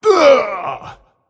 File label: Male Titan Keeper Death